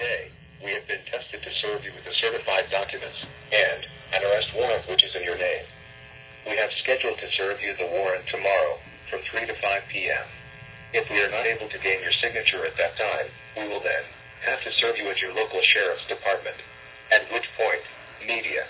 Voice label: Robo Call